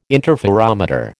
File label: "interferometer", American